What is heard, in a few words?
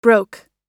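"broke", American English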